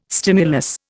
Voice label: "stimulus", Indian